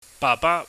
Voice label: "bahba", cantonese